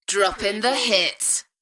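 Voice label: UK female, DJ vocals; Droppin the hits; Party / Dance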